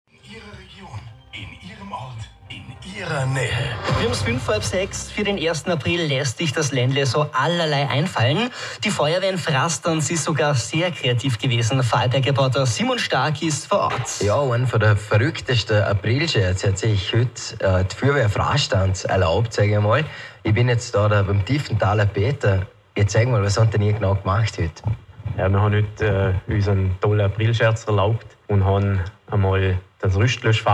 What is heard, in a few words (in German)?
Sprachnotiz Interview